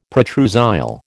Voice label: "protrusile", American